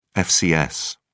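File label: "fcs", British English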